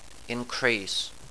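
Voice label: "increase", English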